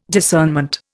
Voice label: "discernment", Indian

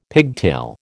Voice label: "pigtail", American

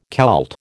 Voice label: "Kelt", American

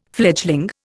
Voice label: "fledgling", Indian

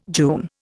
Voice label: "June", Indian

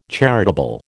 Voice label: "charitable", American

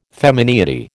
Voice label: "femineity", American

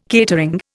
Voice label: "catering", Indian

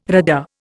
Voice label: "RADA", Indian

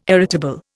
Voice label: "irritable", Indian

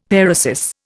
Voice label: "paresis", Indian; Dictionary

